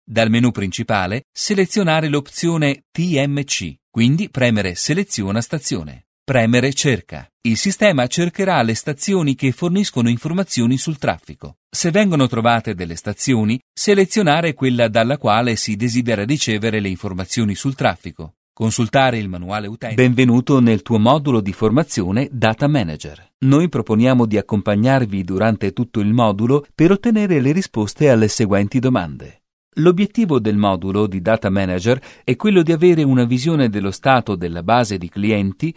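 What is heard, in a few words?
italian male e learning showreel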